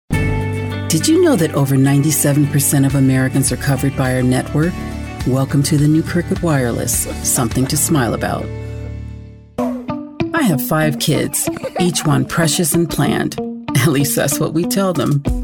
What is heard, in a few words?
Comm, real people female